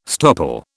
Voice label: "stopple", British